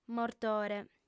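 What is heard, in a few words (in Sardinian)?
"mortore", female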